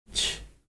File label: "c", Heiltsuk